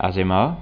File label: "azemar", occitans